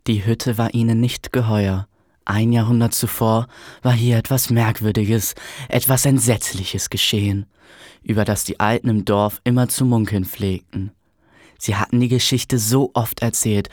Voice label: jungen; Die Hütte

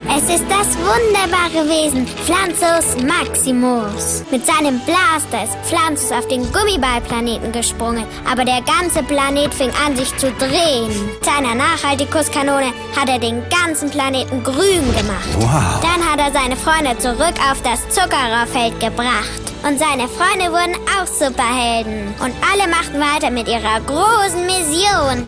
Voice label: maedchen; Lego; Zuckerrohr

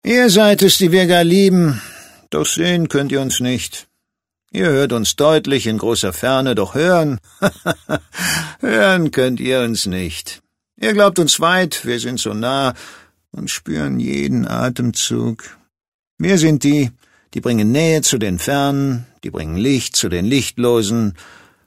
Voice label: cool, maenner

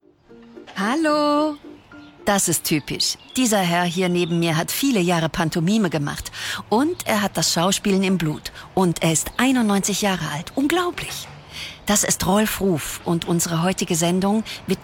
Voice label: Voice Over, frauen; SRF; Die Kraft der Zuversicht

